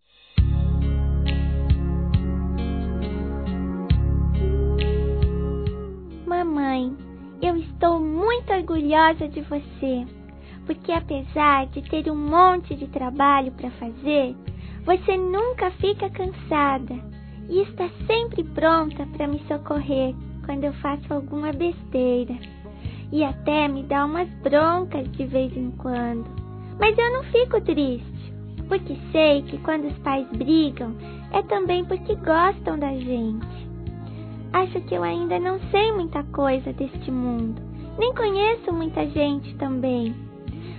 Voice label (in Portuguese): Infantil fem; Telemensagens; ANIV.MÃE